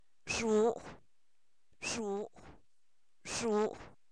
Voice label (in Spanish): terremoto, Zapoteco, san miguel albarradas; objetos inanimados